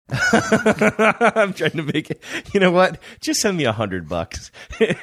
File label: laughing saying im trying to make just send me